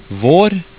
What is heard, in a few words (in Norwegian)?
"var" Norwegian